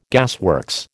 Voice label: "gasworks", American

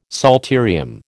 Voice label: "psalterium", American

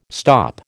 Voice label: "stop", American

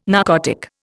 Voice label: "narcotic", Indian

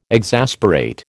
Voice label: "exasperate", American

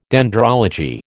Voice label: "dendrology", American

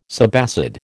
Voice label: "subacid", American

